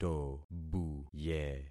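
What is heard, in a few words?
"tobuye", concatenated syllables; Affixing experiment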